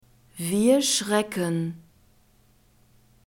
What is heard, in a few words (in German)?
"wir schrecken", German; verbs present tense irregular